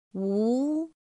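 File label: "ú", Mandarin Chinese pinyin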